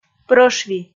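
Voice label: "прӧшви", Komi, female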